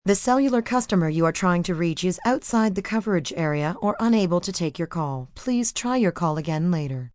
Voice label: Cellular Call, Telephone operator, female, recorded; Telephone Voice; Voice Box